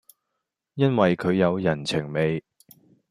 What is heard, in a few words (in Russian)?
Голоса, Гонконгский